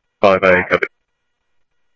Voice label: Mesa Simulcast, MESA PD, scanner audio; 3 Red Mt; MesaPoliceDep

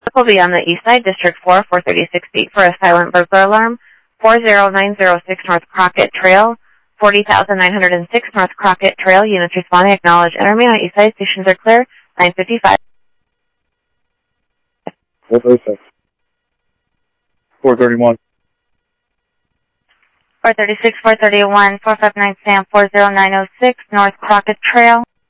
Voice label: Sheriff, scanner audio

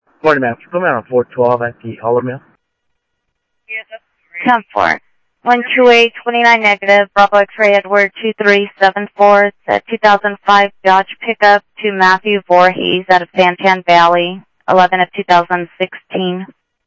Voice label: Sheriff, scanner audio

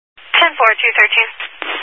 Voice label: Highway Patrol, Phoenix Metro East Area, scanner

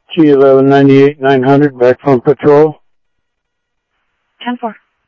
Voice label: Security, scanner audio

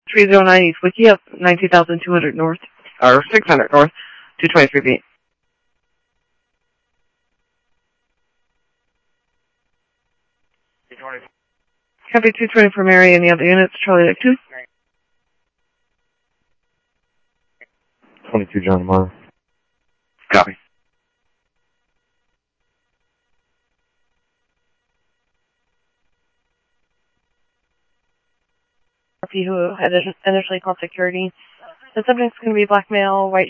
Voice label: Phoenix PD, scanner; PHOENIX PD-200 A; ThompsonPeakHigh

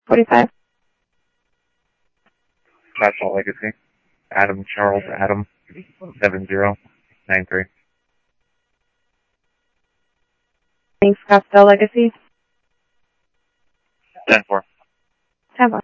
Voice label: PD Police, scanner audio

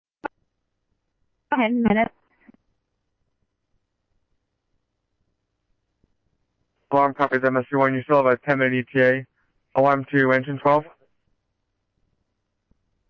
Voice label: Phoenix Fire, scanner; RWC; H-Scottsdale

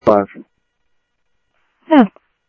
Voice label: Sheriff Central, scanner audio trunk

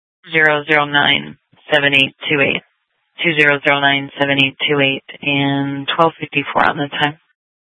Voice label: Sheriff, scanner P25

